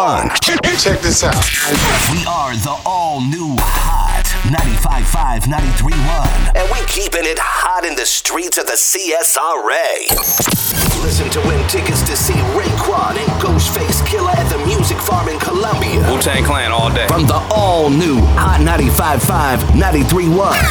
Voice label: urban throwback imaging